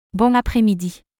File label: French Good afternoon; Greetings